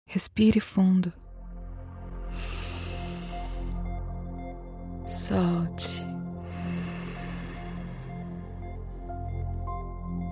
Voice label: Meditation Calming Friendly Brazilian Portuguese Voice Actress